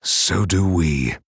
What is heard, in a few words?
Male Night Elf